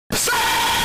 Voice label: stop distorted